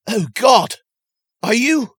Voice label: generic male ethnic; Voices; lucius ii the prophecy

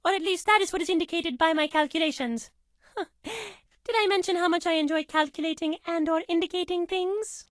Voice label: English Voice

